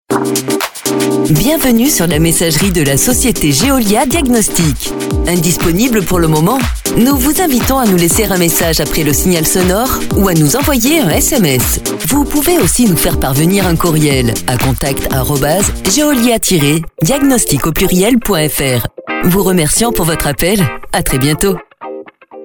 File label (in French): REPONDEUR MOBILE; casting voix